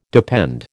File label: "depend", American